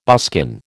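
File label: "buskin", American English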